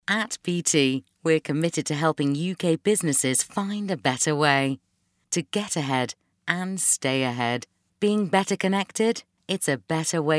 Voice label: SHORT DRY